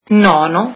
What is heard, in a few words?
ninth, italian